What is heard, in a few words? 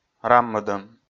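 "раммӧдӧм", Komi, male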